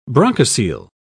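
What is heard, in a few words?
"bronchocele", British English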